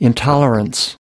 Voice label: "intolerance", us